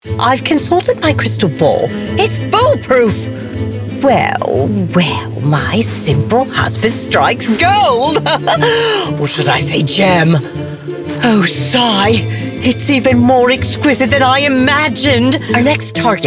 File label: Animation, Voice Over